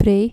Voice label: "prei", Dutch